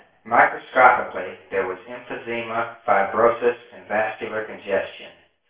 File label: male